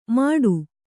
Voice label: "ಮಾಡು", Kannada

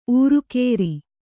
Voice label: "ಊರುಕೇರಿ", Kannada

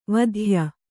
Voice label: "ವಾತ್ಯೆ", Kannada

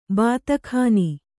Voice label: "ಬಾತಾಖಾನಿ", kannada

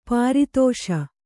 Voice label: "ಪರದೇಶಿ", Kannada

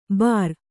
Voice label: "ಬಾರ್", Kannada

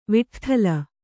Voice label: "ವಿಟ್ಠಲ", Kannada

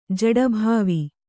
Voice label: "ಜಡೀಭಾವ", Kannada

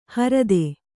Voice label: "ಹೊರ್ತು", Kannada

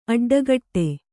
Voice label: "ಅಡ್ಡಕಟ್ಟು", Kannada

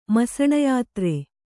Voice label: "ಮಸಣಯಾತ್ರೆ" Kannada; masaṇa yātre; noun

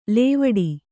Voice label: "ಲವಡ", Kannada

